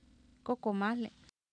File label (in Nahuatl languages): "kOkomAahli", Oapan, female; Oapan minimal pairs 1998